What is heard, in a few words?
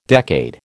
"decade", American